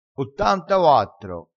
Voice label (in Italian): "ottantauattro", crespinese